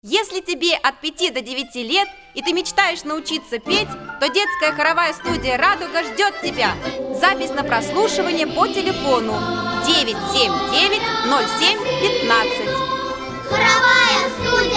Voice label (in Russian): Reklama Dlya Radio